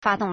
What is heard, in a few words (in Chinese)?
"fa1dong4", Chinese